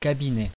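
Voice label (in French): "cabinet" French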